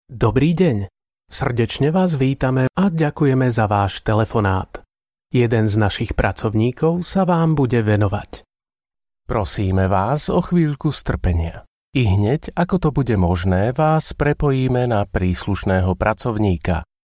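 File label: Slovak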